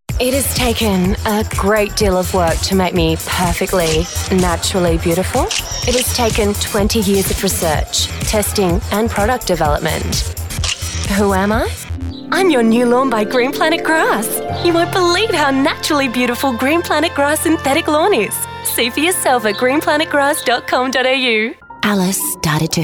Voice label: Character